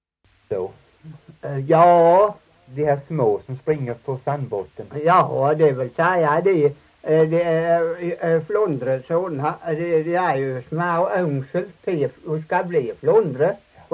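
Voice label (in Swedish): Torhamn Blekinge, Svenska sagor dialekter; Folkminnen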